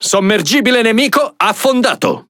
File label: Speech Destroy Enemy Sub, IT; World Of Warships